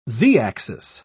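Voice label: "z-axis", British English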